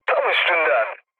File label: bomb success, mood low, speech tur; War Thunder